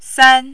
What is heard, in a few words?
"san1", Mandarin